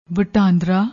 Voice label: "watandra", Punjabi; ਵਟਾਂਦਰਾ

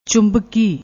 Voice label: "chumbaki", Punjabi; ਚੁੰਬਕੀ

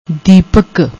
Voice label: "deepak", Punjabi; ਦੀਪਕ

